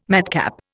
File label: "madcap", Indian